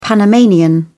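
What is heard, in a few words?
"panamanian", British English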